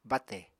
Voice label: "bátè", Apatani